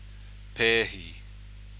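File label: "pehi", Māori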